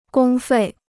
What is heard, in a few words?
"公费", Chinese